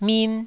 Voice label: "min1", taiwanese